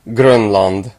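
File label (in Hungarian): "greenland", Hungarian; gcompris; geography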